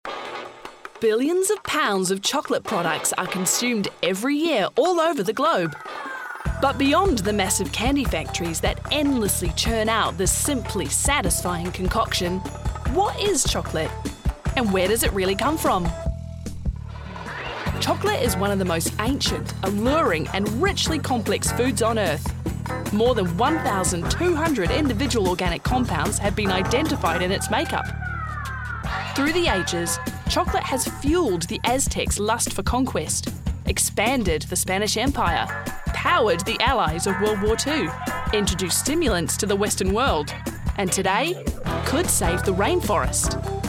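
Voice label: Australian English Narration; voice demos; GoLocalise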